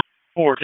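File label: Fire Department HQ Dispatch; RFD; wake; p25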